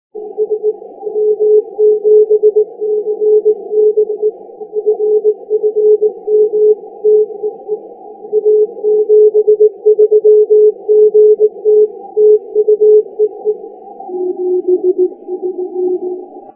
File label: 80m, More loud, solid pacific.; FT-1000d